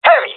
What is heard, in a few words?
taking fire friendly, jungle male; player vo; Counter Strike Model Pack; CS:GO